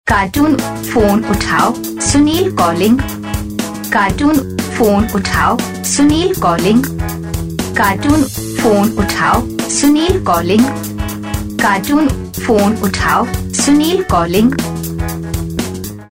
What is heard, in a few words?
cartooon phone uthao sunil calling saying